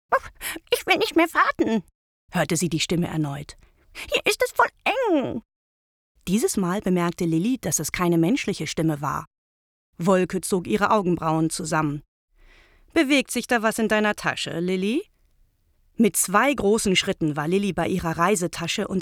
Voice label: audio book; Tanya Stewner